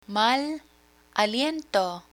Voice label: "mal aliento", Spanish

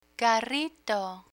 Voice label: "carrito", Spanish; restaurant equipment; learn spanish

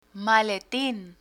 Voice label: "maletin", Spanish; learn spanish

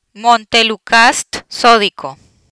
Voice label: "Montelukast Sodico", Spanish

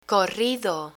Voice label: "corrido", Spanish; spanish verbs; learn spanish

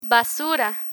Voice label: "basura", Spanish; kitchen vocabulary

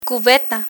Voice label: "cubeta", Spanish; cleaning vocabulary

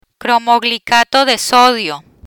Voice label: "Cromoglicato de Sodio", Spanish; asthma medicines

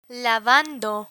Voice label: "lavando", Spanish

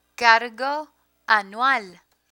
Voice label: "cargo anual", Spanish; banking vocabulary

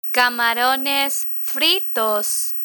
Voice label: "camarones fritos", Spanish; travel menu; learn spanish

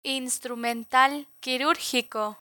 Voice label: "instrumental quirurgico", Spanish; medical

